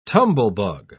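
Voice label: "tumblebug", British English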